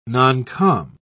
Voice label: "noncom", en uk